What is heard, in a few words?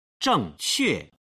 "zhengque", chinese